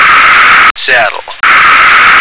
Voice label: "saddle", American

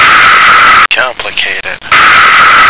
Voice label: "completed", American

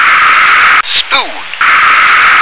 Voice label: "spoon", English

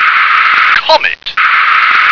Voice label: "comet", English

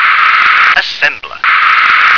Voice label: "assembler", English

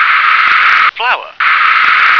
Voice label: "flour", English

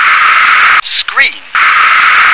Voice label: "screen", English

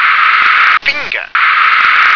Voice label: "finger", English

